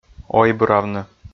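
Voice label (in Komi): "ойбыравны", Komi, male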